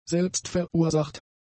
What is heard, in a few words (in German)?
"selbstverursacht", German; adjectives